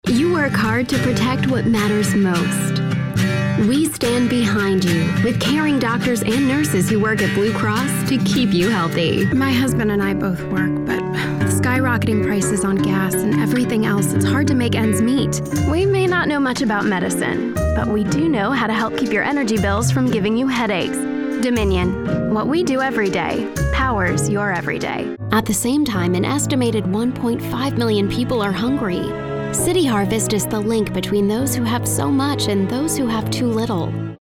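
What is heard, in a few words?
En-USA, English, United States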